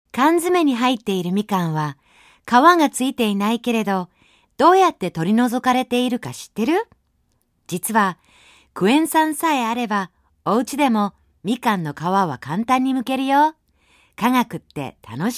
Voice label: jp kids voice